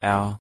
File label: "r" pronunciation de